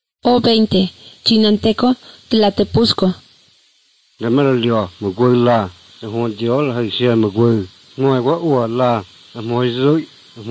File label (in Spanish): spanish mexico